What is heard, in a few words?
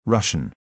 russian